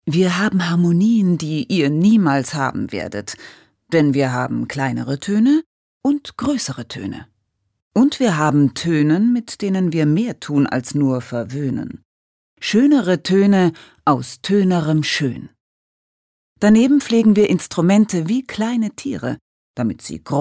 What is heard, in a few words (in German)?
Schauspiel Variabel